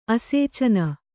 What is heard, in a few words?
"ಆಸೇಚನ", Kannada